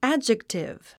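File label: "adjective", English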